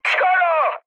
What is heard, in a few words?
bomb failure, speech cze, mood high; War Thunder